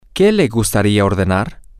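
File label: what would you like to order, Spanish, female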